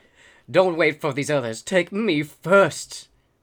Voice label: Male Beggings

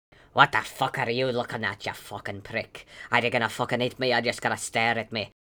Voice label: Male Beggings

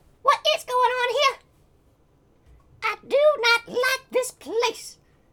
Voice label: Voices Female Beggings

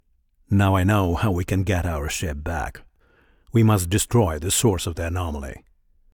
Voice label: Voice Dialog; we are the dwarves gamerip 2016

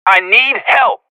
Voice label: Professional Radio bot under fire

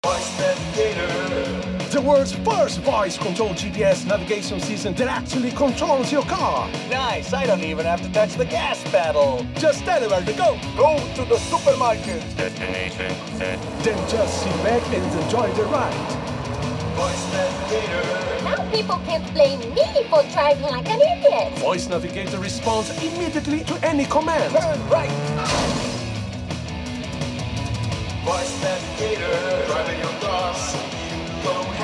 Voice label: Voice Navigator

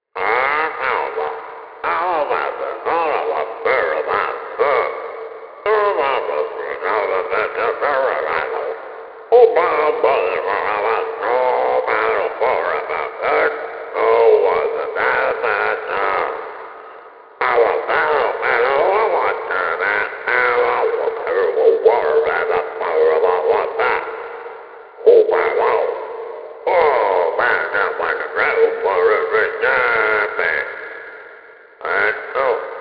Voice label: Propaganda Radio Voice; Beholder 2

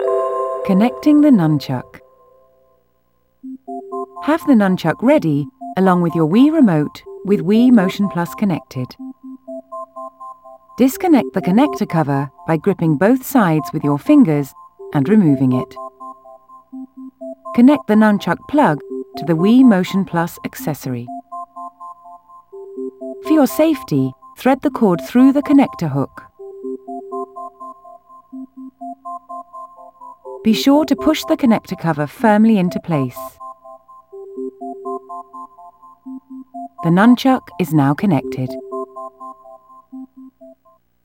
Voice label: Connecting the Nunchuk (English); the legend of zelda skyward sword wii gamerip 2011

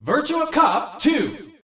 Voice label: voice 'virtua cop 2'

